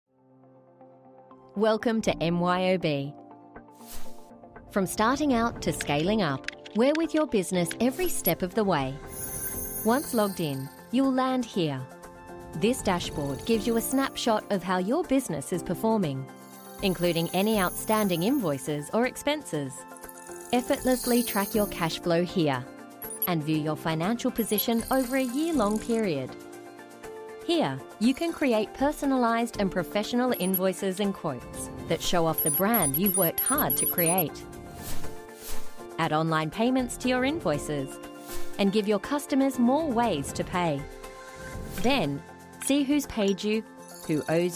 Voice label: English Australian explainer videos